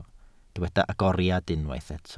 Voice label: "agoriad", Welsh